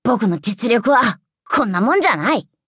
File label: levelup ja; Cookie Run